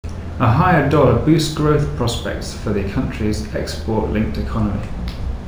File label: reverb sen far